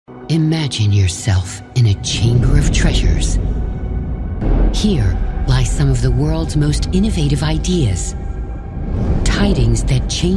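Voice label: American English museums and tours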